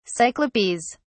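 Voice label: "cyclopes", British English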